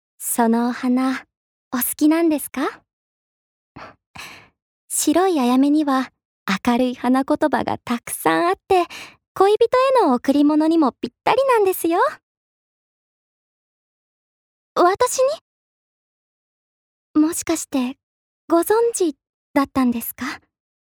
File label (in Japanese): アニメ